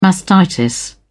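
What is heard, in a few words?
"mastitis", British English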